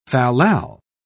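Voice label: "fallal", British English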